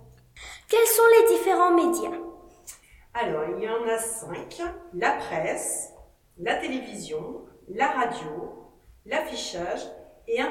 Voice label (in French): interview avec jingle